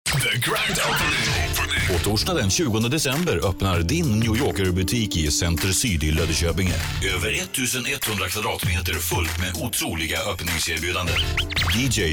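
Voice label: Swedish, advertising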